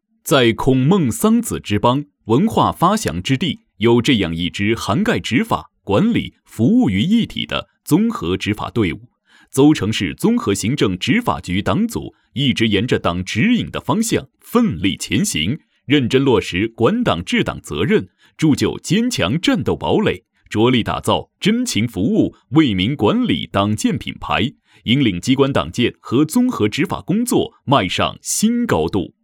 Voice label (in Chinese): 年轻干练, 政府工作, 沉稳有力; 邹城市综合行政执法局党建工作纪实